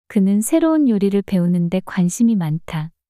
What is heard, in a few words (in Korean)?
그는 새로운 요리를 배우는 데 관심이 많다 문장 여자